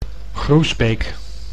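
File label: "Groesbeek", Dutch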